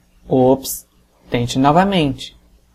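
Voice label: check answer, Chinese; gcompris-data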